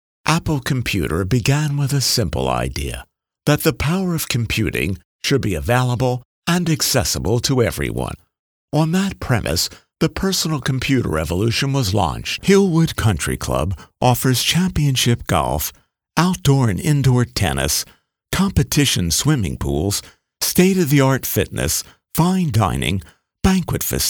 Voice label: corporate dry